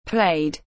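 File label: "Played", English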